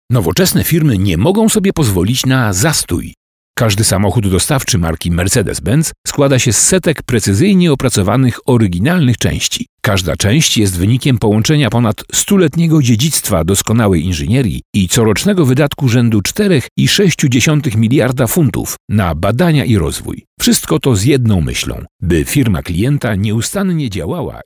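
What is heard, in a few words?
Polish, male, narration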